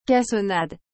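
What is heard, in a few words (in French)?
"cassonade", French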